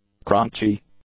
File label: "crunchy", English; adjectives